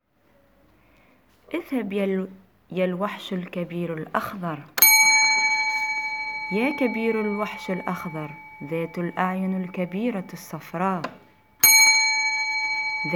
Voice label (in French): grand monstre vert en arabe